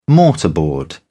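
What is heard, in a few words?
"mortarboard", British English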